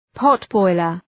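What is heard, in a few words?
"potboiler", English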